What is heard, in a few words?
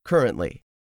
"CURRENTLY", english; 3000 words